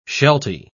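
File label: "shelty", British English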